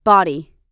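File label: "body", US English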